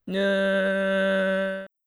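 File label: "ぬ", Japanese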